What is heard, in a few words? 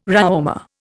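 "Réaumur", Indian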